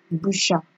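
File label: "busha", Nigerian Pidgin, female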